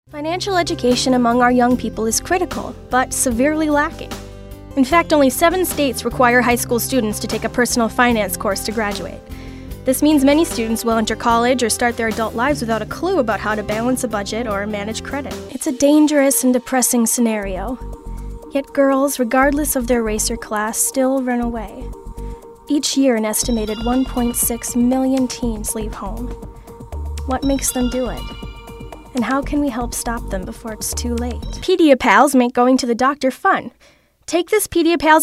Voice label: Commercial